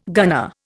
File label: "gunner", Indian